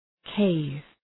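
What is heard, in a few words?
"cave", English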